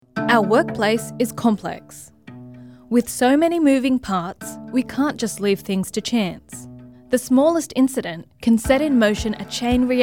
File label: Explainer Video Sample